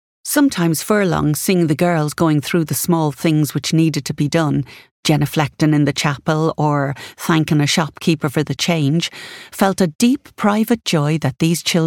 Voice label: Audiobook